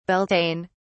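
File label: "beltane", British English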